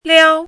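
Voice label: "liāo", Mandarin Chinese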